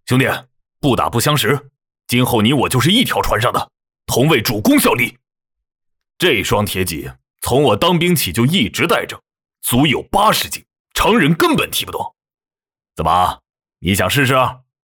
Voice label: 男 中年角色; 男7号（活力、品质）; 男7-中年角色

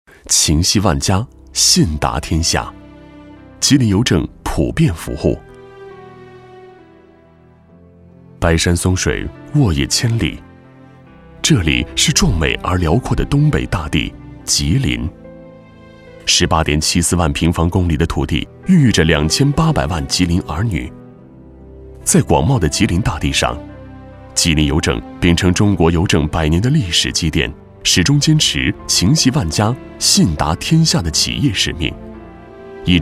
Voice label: 男 栏目 普遍服务

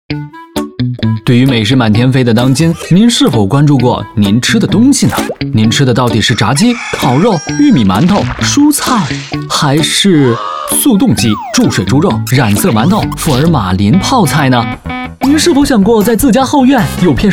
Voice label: 男2; 男2号（活力、时尚）; 飞碟说-私人订制

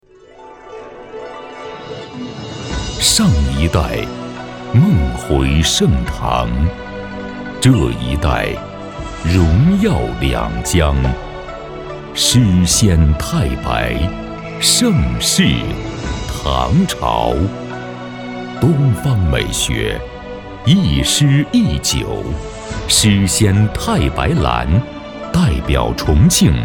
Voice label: 男 广告 品质古风; 诗仙太白蓝

